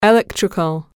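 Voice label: "electrical", English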